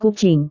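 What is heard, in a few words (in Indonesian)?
"kucing", Indonesian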